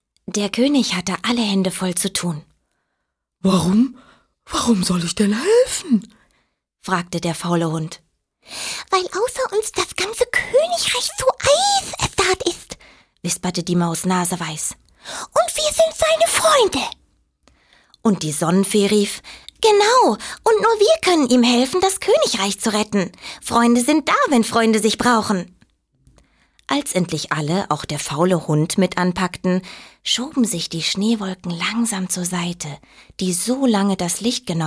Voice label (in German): Schauspiel Variabel